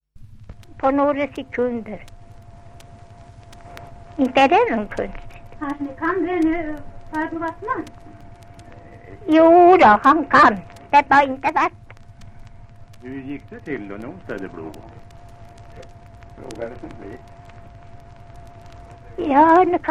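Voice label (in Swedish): Hamrånge Gästrikland, dialekter; Folkminnen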